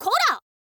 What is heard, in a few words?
"kora", girl